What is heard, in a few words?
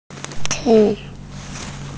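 "ci4", Mandarin Chinese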